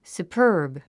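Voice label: "superb", English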